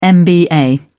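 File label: "mba", British English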